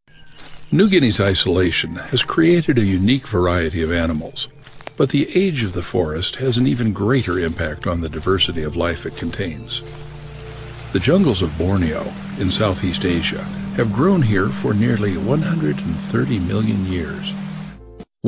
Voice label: English Voice Over

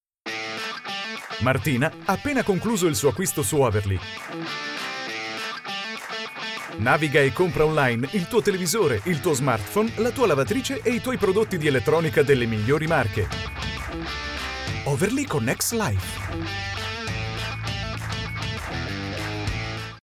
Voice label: Italian voiceover energetic

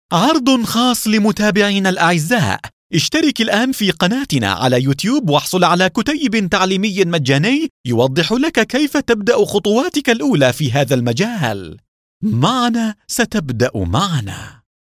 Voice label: Arabic voice over commercial

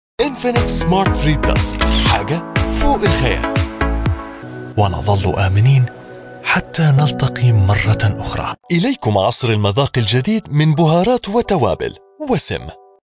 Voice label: Arabic Voiceover

